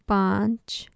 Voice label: "paanch", Urdu, five